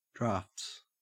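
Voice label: "draughts", Australian English; pronunciations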